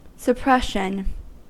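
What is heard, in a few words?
"suppression", American English; pronunciations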